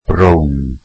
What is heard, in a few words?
welsh brown